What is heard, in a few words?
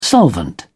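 "solvent", English, British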